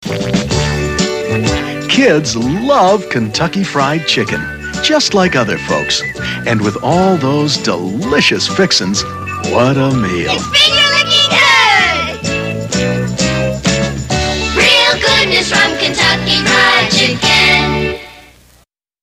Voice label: KFC Commercial 70s